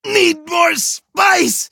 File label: hit, en; Cookie Run